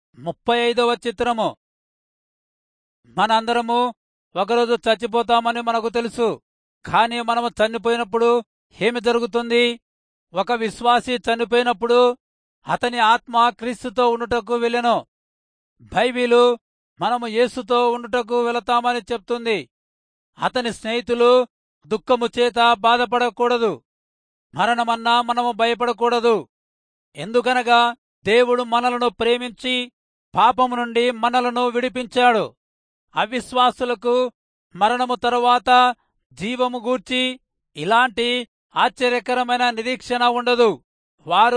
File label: telugu rayalseema; good news